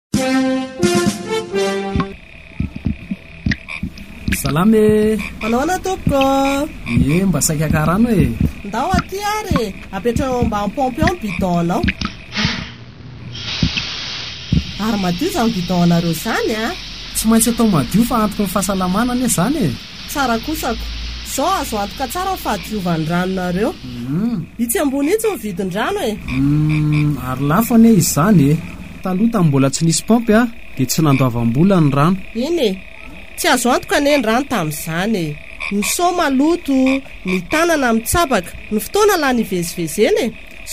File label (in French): spots radio; fikrifama; gret; inter aide; medair; protos